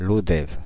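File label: "Lodève", French, Paris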